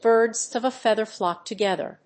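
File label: "Birds of a feather flock together", English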